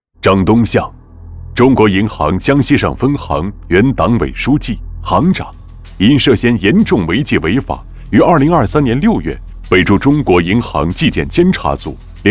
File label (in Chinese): 男 反腐警示; 张东向严重违纪违法警示录